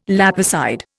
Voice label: "lapicide", Indian